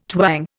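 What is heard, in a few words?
"twang", Indian English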